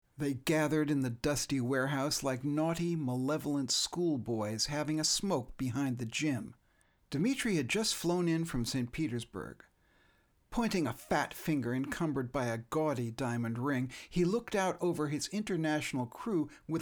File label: Dramatic Accents